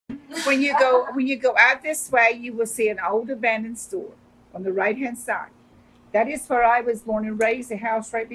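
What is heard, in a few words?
USA North Carolina Tidwater Southern Female 60s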